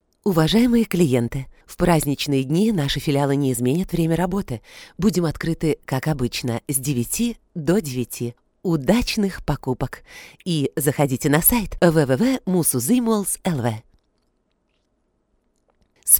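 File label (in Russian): Informative women Russian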